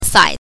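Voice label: "sai", Mandarin Chinese syllable, neutral tone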